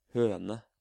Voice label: "Høme", Norwegian